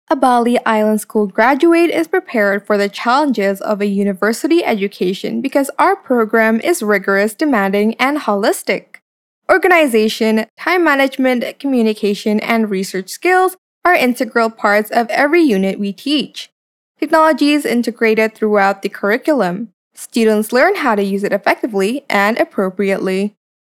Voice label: FORMAL ENGLISH